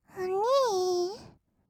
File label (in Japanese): おにぃ, kawaii voice; ASMR; 二人称